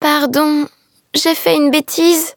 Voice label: defeat, fr; Cookie Run